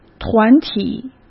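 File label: "团体", Mandarin Chinese; chinese flashcards; hsk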